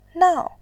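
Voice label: "nao", Mandarin, tone 4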